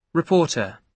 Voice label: reporter